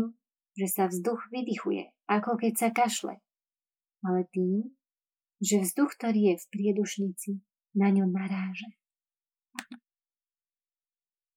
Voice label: reklamny hlas

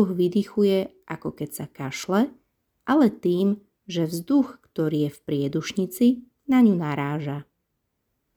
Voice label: zensky hlas voice over

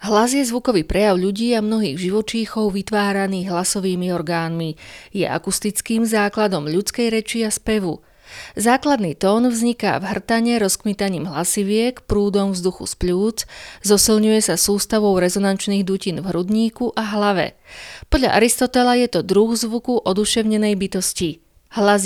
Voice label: Ukazka Reklamnyhlas

